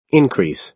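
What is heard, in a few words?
"increase", American English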